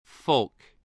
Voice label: "folk", English

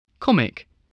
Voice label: "comic", voice

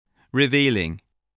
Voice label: "revealing", English

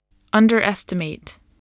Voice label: "underestimate", English spelling voice

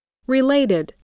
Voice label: "related", spelling voice

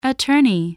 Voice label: "attorney", english

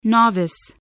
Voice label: "novice", voice, spelling

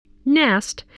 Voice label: "nest", voice

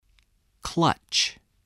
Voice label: "clutch", spelling

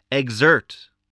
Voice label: "exert", English

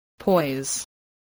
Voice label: "poise", English

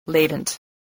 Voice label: "latent", English

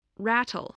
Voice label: "rattle", English

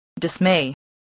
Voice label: "dismay", spelling

